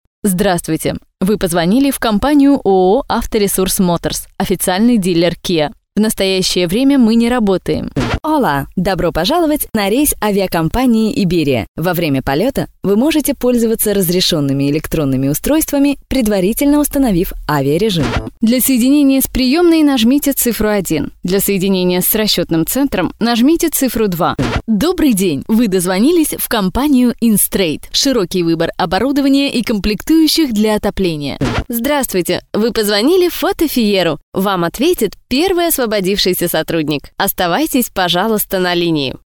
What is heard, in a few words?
IVR